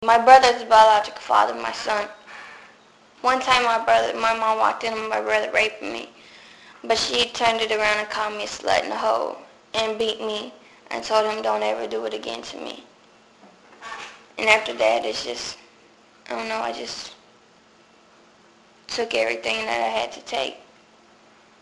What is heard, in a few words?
girls voices